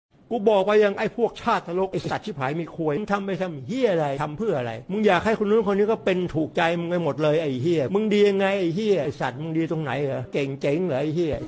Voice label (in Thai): เสียงด่า; เสียงเอฟเฟกต์; ฮาๆ; เพื่อพากย์วิดีโอตลกๆ